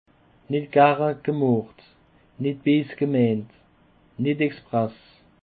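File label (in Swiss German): "net gern gemacht", Alsatian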